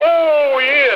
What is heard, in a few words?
"oh yeah", Voice